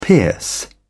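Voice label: "pierce", British English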